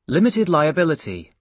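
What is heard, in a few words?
"limited liability", British English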